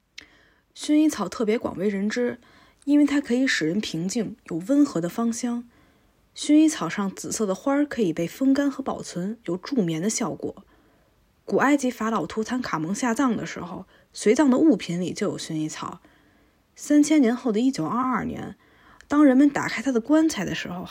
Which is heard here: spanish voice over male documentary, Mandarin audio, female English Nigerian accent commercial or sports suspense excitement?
Mandarin audio